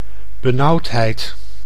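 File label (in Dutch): "benauwdheid", Dutch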